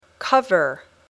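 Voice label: "cover", English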